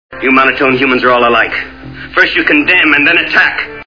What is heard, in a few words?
monotone